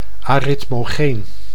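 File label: "aritmogeen", Dutch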